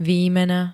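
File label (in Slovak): "výmena", Slovak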